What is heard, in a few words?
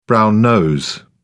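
"brown-nose", British English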